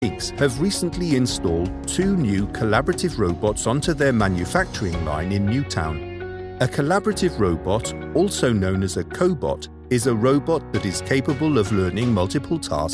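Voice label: Welsh Accented English; GoLocalise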